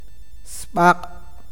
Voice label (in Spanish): nube, awakateko